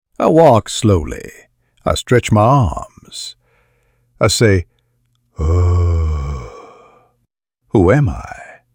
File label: zombie, HALLOWEEN MYSTERY VOICES